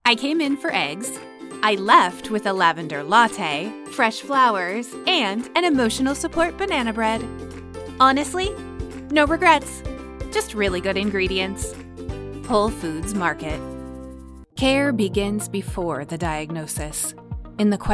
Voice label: commercial, female; Internetjock